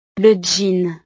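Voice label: "le jean", French; ropa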